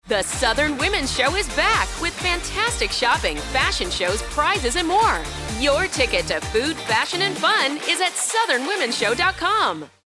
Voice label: Radio Spot; Southern Womens Show